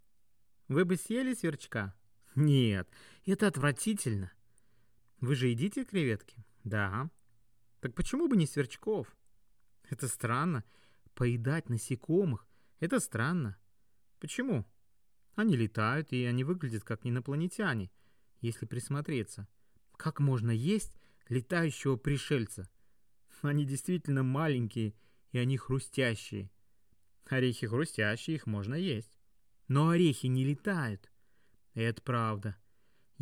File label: Russian M; Sample